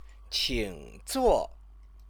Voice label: "qingzuo", Chinese